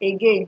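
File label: "again", Nigerian Pidgin, female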